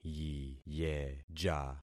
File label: "yiyeja", concat syllables; Affixing experiment